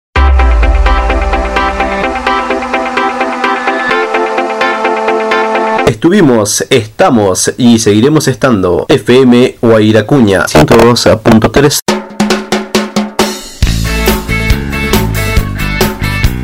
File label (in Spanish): spot; huayra cunia